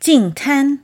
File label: "jingtan" Chinese, clean beaches; Earth Day in Chinese